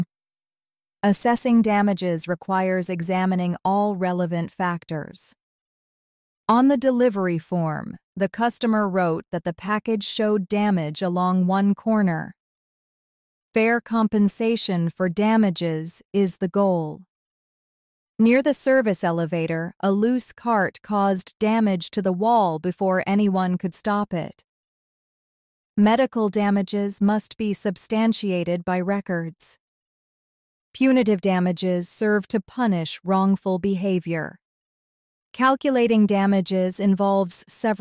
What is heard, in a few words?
dictation